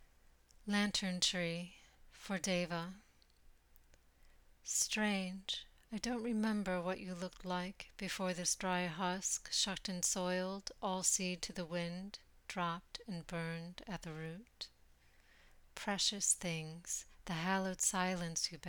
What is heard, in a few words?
poem; Lantern Tree